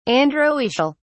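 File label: "androecial", English, British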